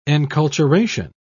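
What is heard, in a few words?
"enculturation", British English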